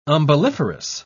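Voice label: "umbelliferous", British English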